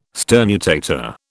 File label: "sternutator", British